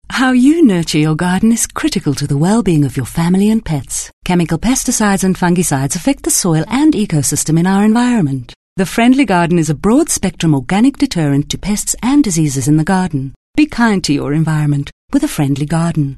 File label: English